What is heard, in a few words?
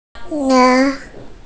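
"jia1", Mandarin Chinese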